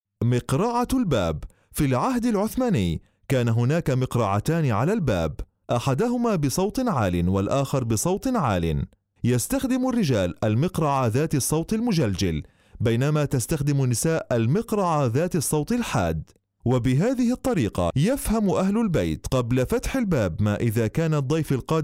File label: arabic